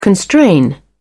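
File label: "constrain", English, British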